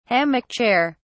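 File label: "hammock chair", British English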